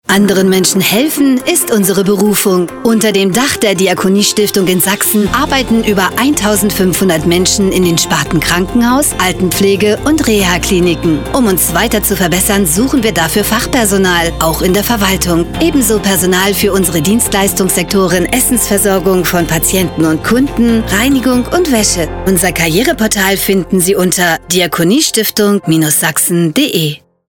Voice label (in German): Radio Spot; Diakonie Stiftung In Sachsen